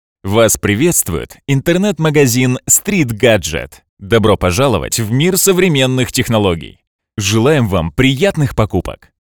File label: IVR voice